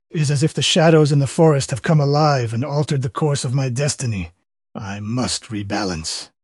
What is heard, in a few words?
upset; kage